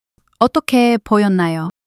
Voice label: fluster